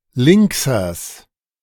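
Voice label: "Linksers", German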